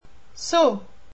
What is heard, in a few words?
"soo", Berber